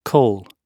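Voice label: "call", British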